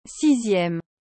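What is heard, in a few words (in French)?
"sixieme", French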